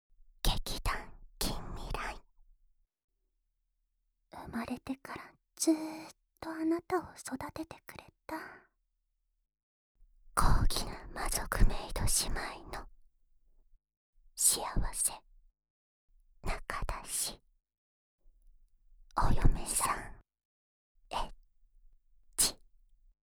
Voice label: タイトルコール; BGM OFFver

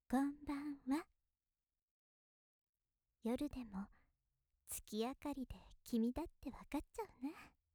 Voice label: 優しいお姉さん こんばんわ

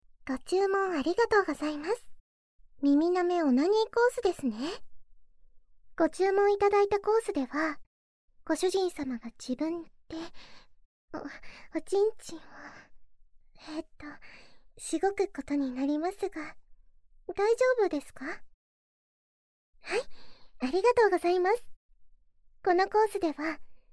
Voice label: 耳舐めオナニーコース, ASMR

